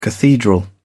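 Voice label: "cathedral", British English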